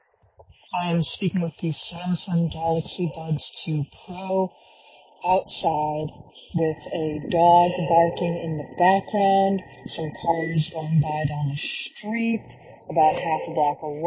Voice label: samsung galaxy buds 2 pro microphone nonstandardized outside